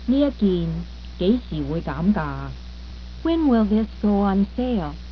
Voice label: Cantonese